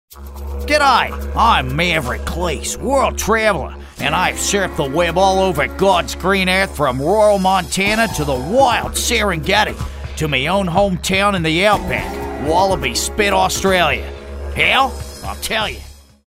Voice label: australian